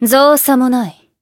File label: finish, jp; isuka